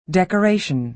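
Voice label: "decoration", American English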